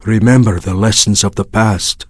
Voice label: Draenei Male Farewell

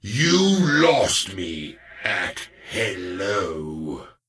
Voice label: Death Knight Male Pissed

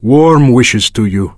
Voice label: Draenei Male Greeting

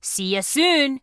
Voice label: Dwarf Female Guard NPC Farewell

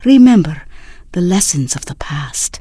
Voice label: Draenei Female Military Farewell

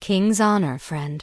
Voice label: Human Female Official NPC Greeting

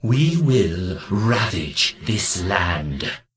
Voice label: Death Knight Male Low Farewell; Scourge; NPC Death Knight Male Low

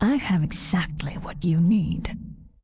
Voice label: Undead Female Warrior NPC Vendor

